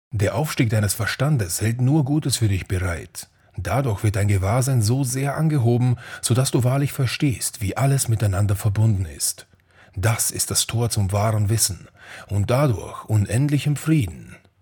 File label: German, Male